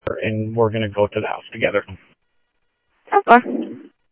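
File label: Sheriff West County, scanner audio trunk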